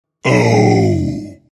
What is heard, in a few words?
alchemist pain